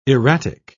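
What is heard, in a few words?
"erratic", English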